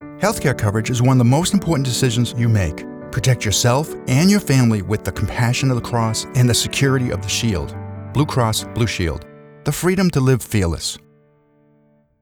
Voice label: CARING, INFORMATIONAL; BCBS